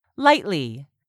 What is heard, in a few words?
"LIGHTLY", english; 3000 words